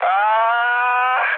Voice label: damaged pilot, speech hun; War Thunder